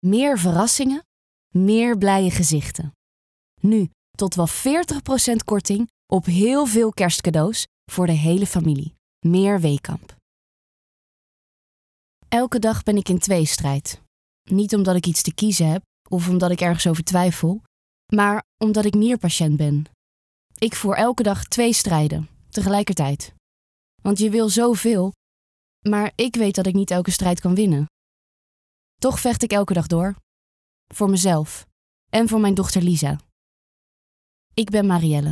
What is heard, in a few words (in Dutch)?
warm en vertrouwd